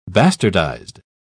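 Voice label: "bastardized", British English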